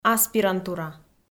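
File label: "аспирантура", Komi, female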